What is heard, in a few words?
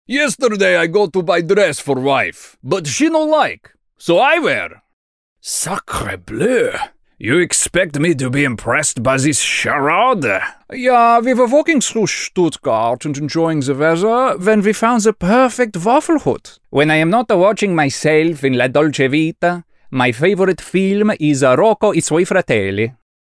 European Accents - Russian, French, German, Italian